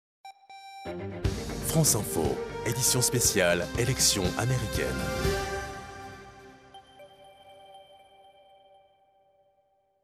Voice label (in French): Habillage TV Radio; Election US; France Info